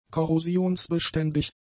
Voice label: "korrosionsbeständig", German; adjectives

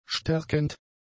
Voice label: "stärkend", German; adjectives

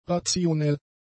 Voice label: "rationell", German; adjectives

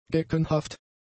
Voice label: "geckenhaft", German; adjectives

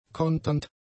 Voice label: "konternd", German; adjectives

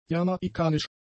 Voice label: "jamaikanisch", german; adjectives

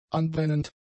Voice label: "anbrennend", German; adjectives

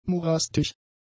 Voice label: "morastig", German; adjectives

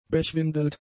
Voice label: "bechwindelt", German; adjectives

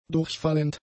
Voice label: "durchfallend", German; adjectives

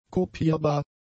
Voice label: "kopierbar", German; adjectives

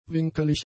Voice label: "winkelig", German; adjectives

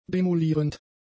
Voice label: "demolierend", german; adjectives

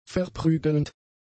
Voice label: "verprügelnd", german; adjectives

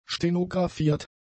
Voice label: "stenographiert", German; adjectives

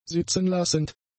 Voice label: "sitzenlassend", german; adjectives

